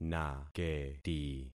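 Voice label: "naketi", concatenated syllables; Affixing experiment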